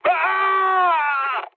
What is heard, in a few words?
damaged pilot, mood med, speech; War Thunder